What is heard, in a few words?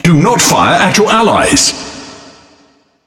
Speech Friendly Fire Hit Alert, EN GB; World Of Warships